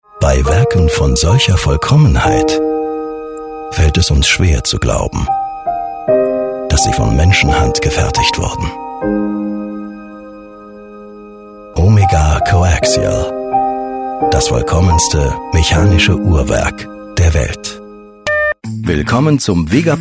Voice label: german voice over male commercial